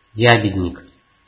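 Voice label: "ябедник", Russian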